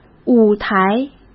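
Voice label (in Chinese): "舞台", Mandarin Chinese; hsk; chinese-flashcards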